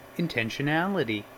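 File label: "intentionality", US English; pronunciations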